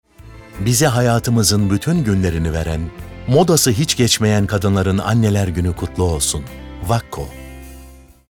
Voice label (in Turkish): Erkekli Romantik